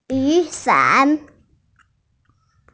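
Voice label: "yu3 san3", Mandarin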